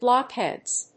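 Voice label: "blockheads", English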